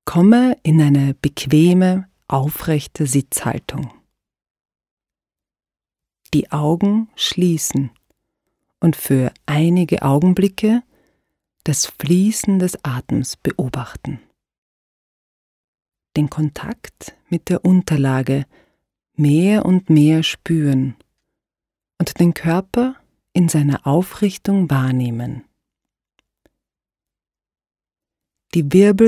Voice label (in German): Yogaanleitung